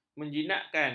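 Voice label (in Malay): "menjinakkan", Malay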